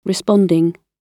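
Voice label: "responding", British English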